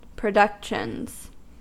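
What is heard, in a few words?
"productions", US English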